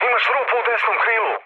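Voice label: Serbian right wing mood med; War Thunder; speech srb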